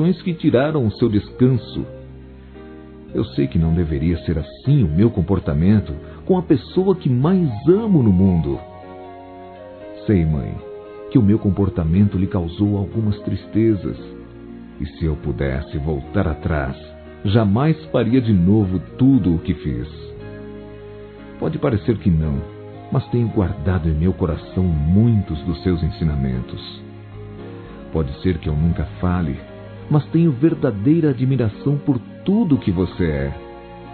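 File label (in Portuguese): PERDÃO MÃE, Masculino; Dia das Mães; Telemensagens 2017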